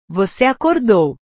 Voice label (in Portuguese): "voce acordou", portugues; Tú despertaste; verbos